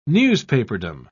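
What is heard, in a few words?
"newspaperdom", British English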